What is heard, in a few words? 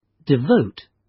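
"devote", British; Technology; Công nghệ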